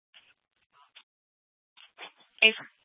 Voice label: Sheriff Dispatch, Scanner audio recording

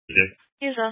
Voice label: Sheriff Dispatch, scanner

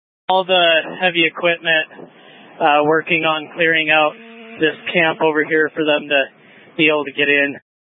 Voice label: Incident Tac 3, scanner

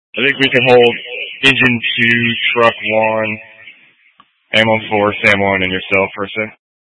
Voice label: Incident Tac, scanner

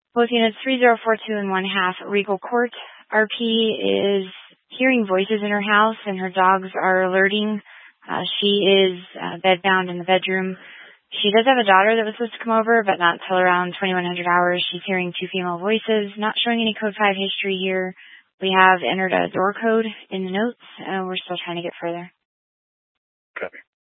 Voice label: Mesa County Sheriff Dispatch; scanner

